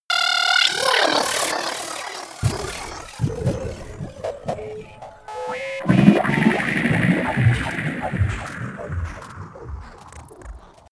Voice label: crazy talk